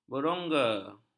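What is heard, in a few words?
"berongga", Malay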